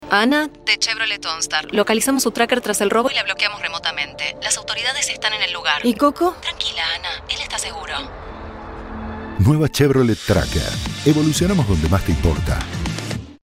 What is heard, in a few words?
spanish argentinian automotive, voice actors; voiceover artist